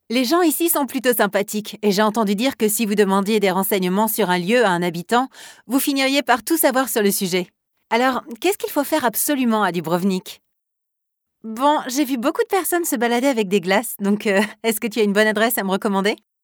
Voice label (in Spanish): locutora francesa french voiceover